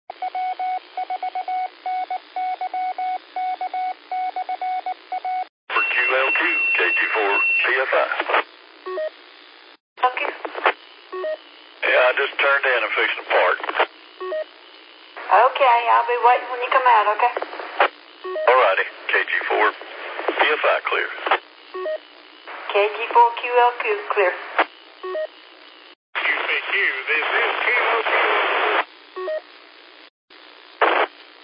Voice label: qso rec simplex logic